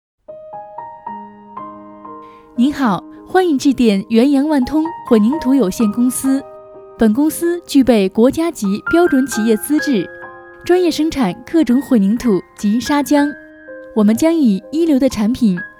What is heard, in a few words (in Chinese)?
女; 原阳万通; 行业：互联网 分类：品牌广告 风格：科技感